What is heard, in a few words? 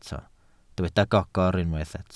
"gogr", Welsh